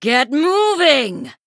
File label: Female Sanlayn Emote Time